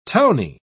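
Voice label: "towny", British English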